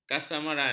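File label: "kasmaran", Malay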